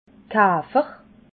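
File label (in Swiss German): "kafer", Alsatian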